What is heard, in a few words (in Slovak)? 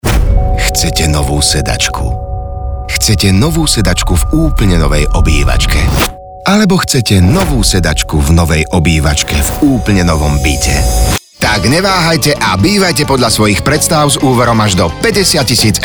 radio sedacka